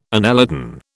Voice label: "annelidan", British

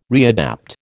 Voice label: "readapt", American

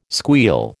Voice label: "squeal", American

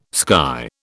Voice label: "sky", British

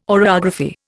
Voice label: "oreography", Indian

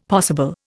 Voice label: "possible", Indian; Dictionary

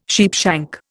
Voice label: "sheepshank", Indian

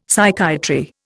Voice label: "Psychiatry", Indian; Dictionary

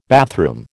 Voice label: "bathroom", American

